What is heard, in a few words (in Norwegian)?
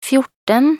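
"fjorten", Norwegian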